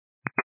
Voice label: 122.925 MHz; scanner radio